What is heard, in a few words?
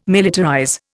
"militarize", Indian